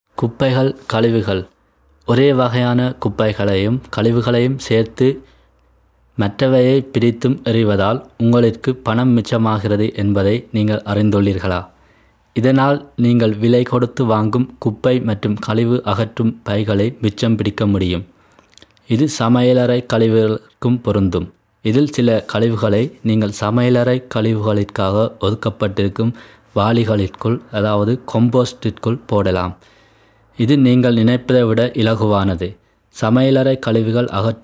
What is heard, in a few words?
tamil abfall